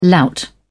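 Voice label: "lout", British English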